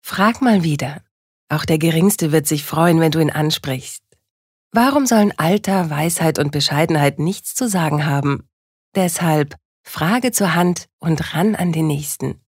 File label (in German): Werbung Sanft